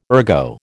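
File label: "ergo", American English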